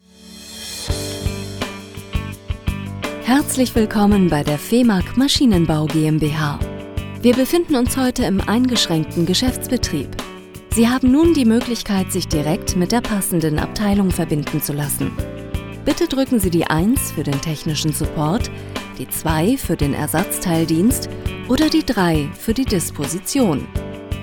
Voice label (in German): Telefonansage